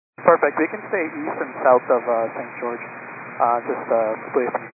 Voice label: 122 925MHz, scanner radio